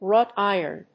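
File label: "wrought iron", English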